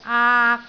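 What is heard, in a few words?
"aak3", Cantonese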